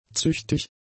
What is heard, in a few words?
"züchtig", German; adjectives